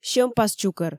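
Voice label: "сьӧмпас чукӧр", Komi, female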